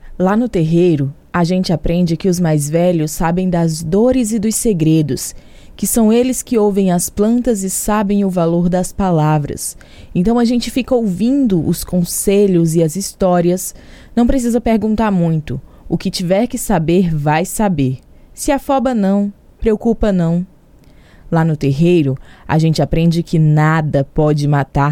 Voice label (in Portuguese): Trecho de Poesia